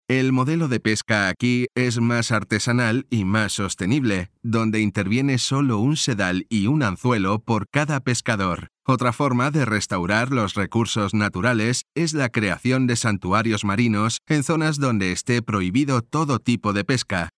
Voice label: locutor video educativo educational voiceover; Spanish cartoon dubbing voice over agency

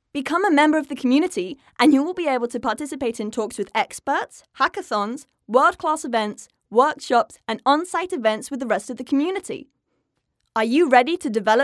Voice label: locutora inglesa joven english voiceover

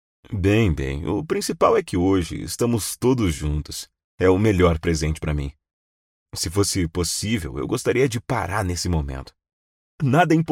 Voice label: locutor brasil brazilian voiceover

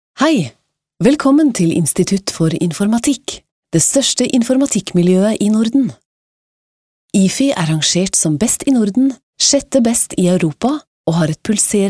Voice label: female norwegian voiceover locutora noruega

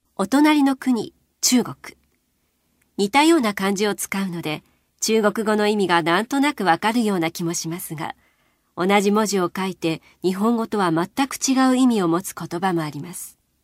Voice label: locutor japones, Japanese voiceover; locutora japonesa

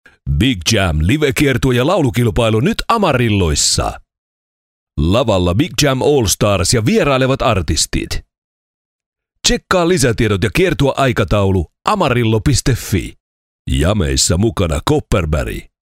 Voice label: finnish male voiceover locutor fines; locutor finlandés

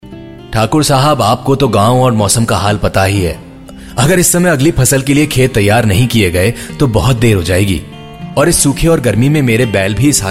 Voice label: hindi voiceover locutor hindu india; locutor de la India

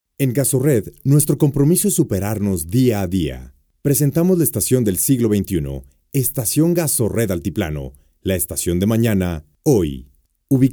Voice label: locutor mexicano mexican voiceover

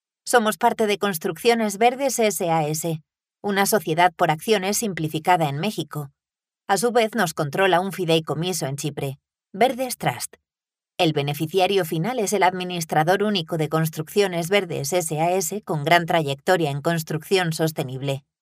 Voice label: locutora spanish voiceover

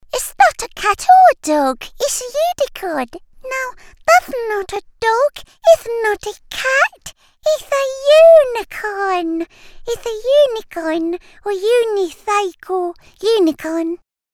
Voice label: english voiceove cartoon dubbing locutora inglesa

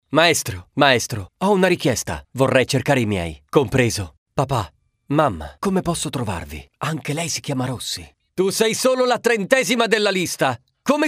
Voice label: locutor italiano, Italian voiceover; locutor de Italia

